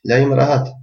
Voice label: leave me alone, albanian gheg